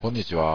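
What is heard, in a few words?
"konniti", Japanese; こんにちは; 大阪弁; 役立つ大阪弁講座; こんにちは（丁寧）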